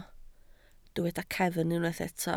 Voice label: "cefn", Welsh

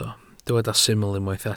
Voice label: "syml" Welsh

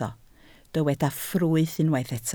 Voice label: "ffrwyth", Welsh

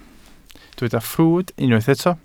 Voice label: "ffrwd" Welsh

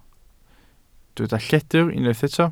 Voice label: "lledr", Welsh

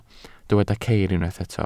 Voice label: "ceir", Welsh

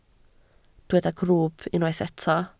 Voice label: "grwwp" Welsh

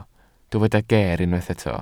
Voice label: "geer", Welsh

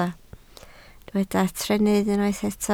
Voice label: "trennydd", Welsh

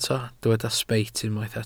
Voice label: "sbeit", Welsh

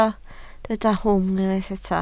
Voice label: "rhwym" Welsh

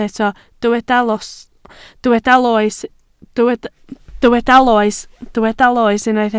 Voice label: "loes", Welsh

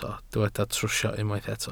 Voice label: "trwsio", Welsh

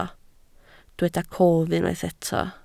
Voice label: "cof", Welsh

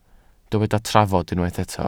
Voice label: "trafod" Welsh

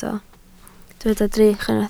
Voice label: "drych", Welsh

